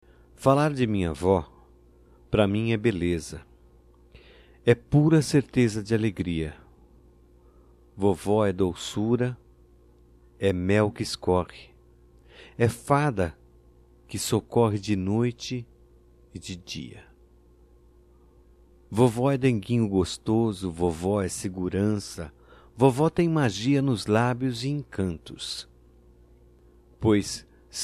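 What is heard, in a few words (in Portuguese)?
DIA DA AVÓ, masculino; Telemensagens; Só Voz; DATAS COMEMORATIVAS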